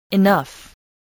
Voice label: "enough", spelling